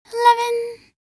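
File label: voc en hour, English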